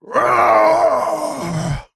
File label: Male Tauren Death